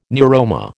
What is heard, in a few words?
"neuroma", American